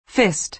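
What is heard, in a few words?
"fist", American English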